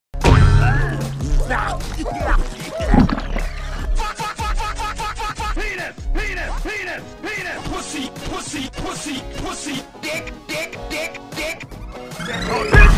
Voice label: goofy ahh slime and swearing